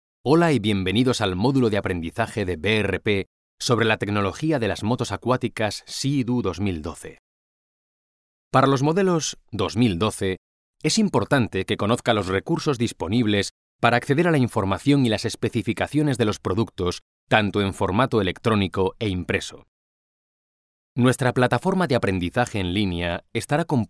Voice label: spanish e-learning